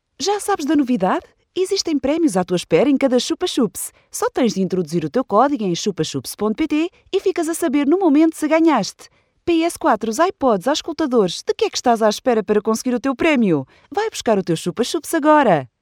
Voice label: portuguese female voiceover